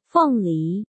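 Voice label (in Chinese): "鳳梨", Chinese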